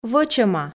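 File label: "вӧчӧма", Komi, female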